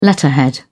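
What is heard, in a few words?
"letterhead", British English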